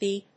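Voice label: "beep", English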